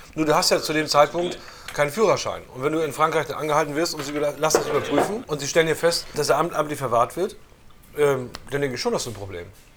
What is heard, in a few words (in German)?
freundesgespraech; rendsburg; sprachdynamik